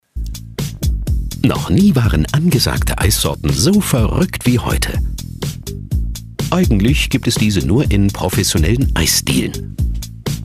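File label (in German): commentary, Freundlich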